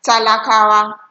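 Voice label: "talakawa", Nigerian Pidgin, female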